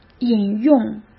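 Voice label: "引用" Mandarin Chinese; chinese flashcards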